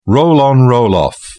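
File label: "roll on roll off", English, British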